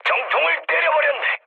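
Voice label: Korean bomb success mood med, speech; War Thunder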